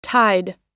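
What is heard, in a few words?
"tied", US English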